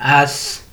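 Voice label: "as", Nigerian Pidgin, male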